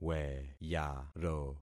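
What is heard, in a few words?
"weyaro", concatenated syllables; Affixing experiment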